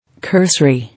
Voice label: "Cursory", English